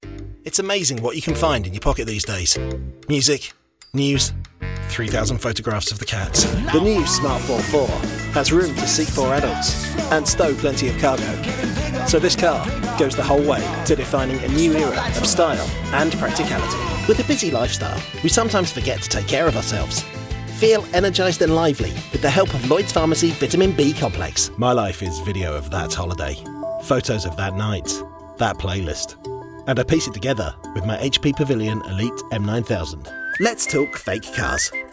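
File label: male, UK English, commercial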